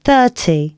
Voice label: announcements female